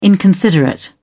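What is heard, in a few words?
"inconsiderate", British English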